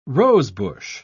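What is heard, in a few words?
"rosebush", British English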